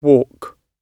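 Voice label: "walk" spoken, British English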